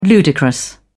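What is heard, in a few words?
"ludicrous", British English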